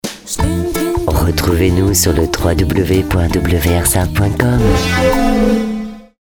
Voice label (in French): Radio Jingle